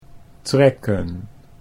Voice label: "trekken", Dutch; 'to pull'